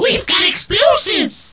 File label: annoyed; goblin sappers; We've got explosives.